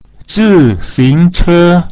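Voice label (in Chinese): "zi xing che", Mandarin Chinese pinyin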